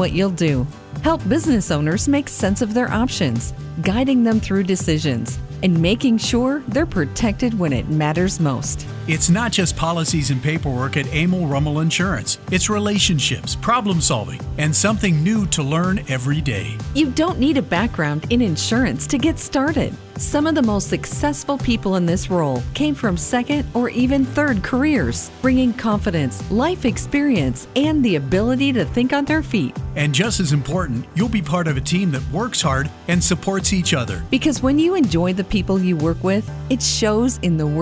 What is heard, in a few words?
RECRUITMENT COMMERCIAL; LINES ACCOUNT MANAGER; careers